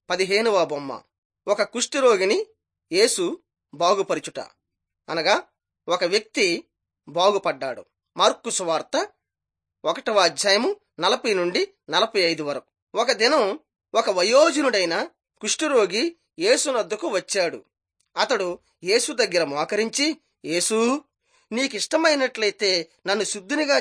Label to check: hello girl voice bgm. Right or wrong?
Wrong